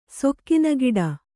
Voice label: "ಸೊಕ್ಕಿನಗಿಡ", Kannada